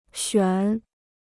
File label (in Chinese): "选", Chinese